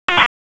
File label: FIRE OPS, p25